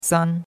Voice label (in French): "san1", chinois; Cours-de-Chinois